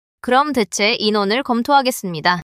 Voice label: neutral